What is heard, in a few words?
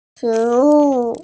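"shi2wu4", Mandarin Chinese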